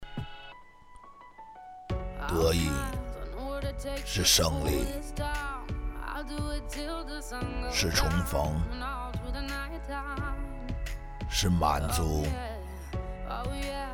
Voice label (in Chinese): 家酒广告配音; 孔府家酒